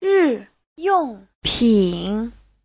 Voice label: "ri4yong4pin3", Chinese

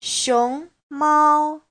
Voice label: "xiong2mao1", Chinese; 熊猫; Giáo trình chuẩn HSK3

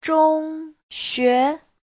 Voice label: "zhong1xue2", chinese; Giáo trình Hán ngữ

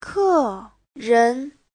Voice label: "ke4ren2", chinese

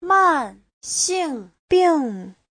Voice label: "man4xing4bing4", chinese; Giáo trình Hán ngữ

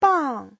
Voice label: "bang4", Chinese; 帮; giúp

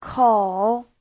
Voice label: "kou3", chinese; 口; (danh từ) miệng; Giáo trình chuẩn HSK3

